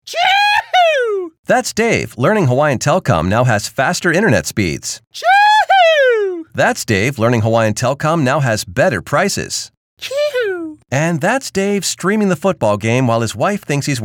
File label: Radio